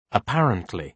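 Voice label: "apparently", British English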